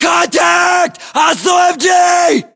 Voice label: US threat mg, battlechatter, english

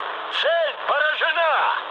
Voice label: bomb success, mood high, speech ru; War Thunder

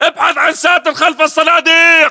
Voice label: order cover boxes, battle chatter, english

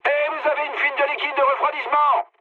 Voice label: damaged water mood low, speech fr; War Thunder

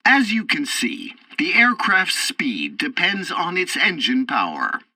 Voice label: tutorial fighter throttle, english; War Thunder

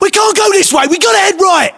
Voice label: voiceovers, US; english

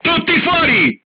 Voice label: leave vehicle, crew tanks italian; War Thunder

